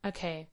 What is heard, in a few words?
surprised